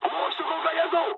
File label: bomb failure mood high, speech jp; War Thunder